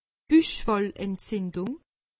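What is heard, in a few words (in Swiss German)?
"buchfallentzindung", Alsatian; medecine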